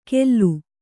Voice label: "ಗೆಲ್ಲು", Kannada

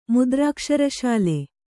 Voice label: "ಮುದ್ರಾಕ್ಷರಶಾಲೆ", Kannada

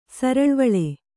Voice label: "ಸರಳ್ವಳೆ", kannada

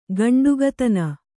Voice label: "ಗಂಡುಗತನ", Kannada

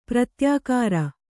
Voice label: "ಪ್ರತ್ಯಾಕಾರ", Kannada

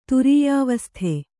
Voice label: "ತುರೀಯಾವಸ್ಥೆ", Kannada

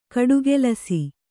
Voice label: "ಗಟ್ಟಿಕೆಲಸ", Kannada

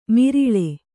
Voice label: "ಮಿರಿಳೆ", Kannada

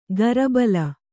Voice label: "ಗರಬಲ", Kannada; garabala; noun

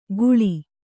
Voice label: "ಘೂಳಿ", kannada

